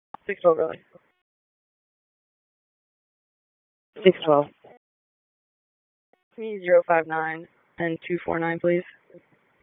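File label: Police Southwest District Dispatch; Raleigh; p25; wake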